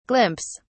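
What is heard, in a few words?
"glimpse", British English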